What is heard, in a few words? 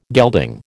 "gelding", American